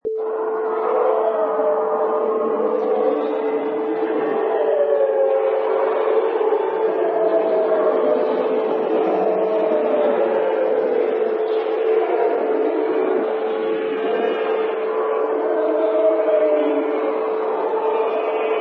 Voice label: Hell Voices Deep